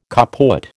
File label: "kaput", American